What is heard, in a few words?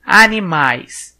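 "animal", Brazilian Portuguese; gcompris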